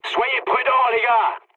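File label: near waypoint, mood high, French; War Thunder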